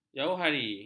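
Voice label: "jauhari", Malay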